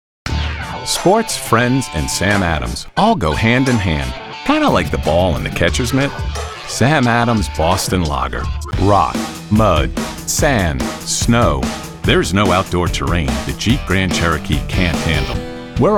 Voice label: Commercial Short Version

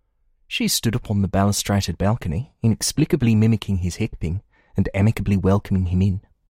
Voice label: New Zealand Younger

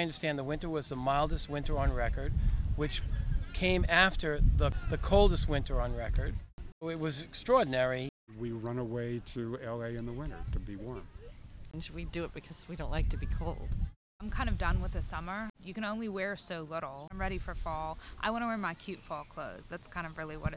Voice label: Vox Weather

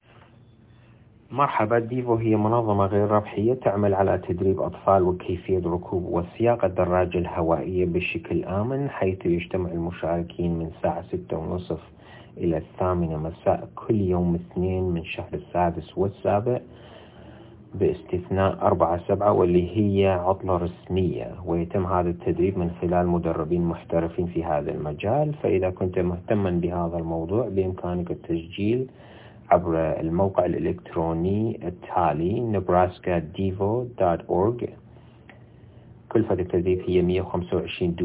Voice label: Arabic

